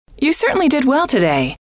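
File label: you Certainly Did Well Today, female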